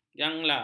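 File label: "jangla", Malay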